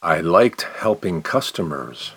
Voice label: response slow